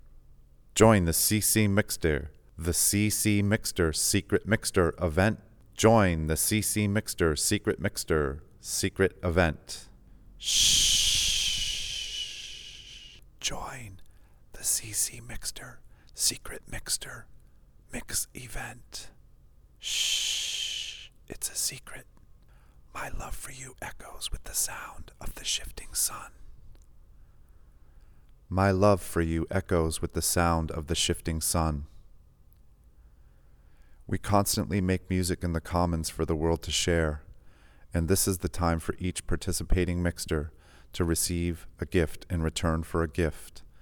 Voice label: PSA; Shifting Sun; Secret Mixter